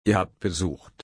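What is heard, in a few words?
"besuchen", German, slow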